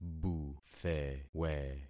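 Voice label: "buthewe", concatenated syllables; Affixing experiment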